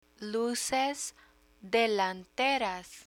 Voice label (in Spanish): "luces delanteras", Spanish